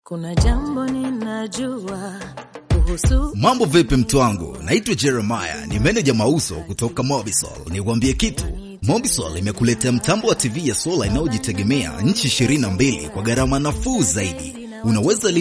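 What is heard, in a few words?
Tanzanian Swahili, male, corporate; voice-over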